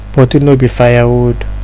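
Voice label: "body no be firewood", Nigerian Pidgin, male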